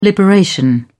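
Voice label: "liberation", British English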